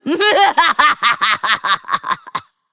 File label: Female Lich Full Blown Evil